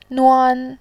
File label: "nuan1", voice